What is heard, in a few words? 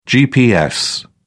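"gps", English, British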